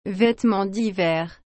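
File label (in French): "vetements dhiver", French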